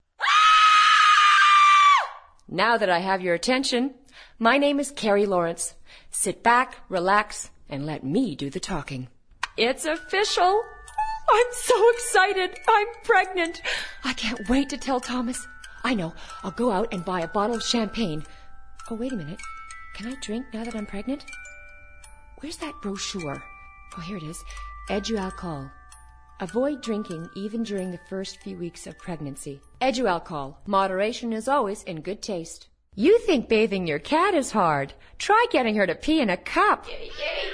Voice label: Voice, women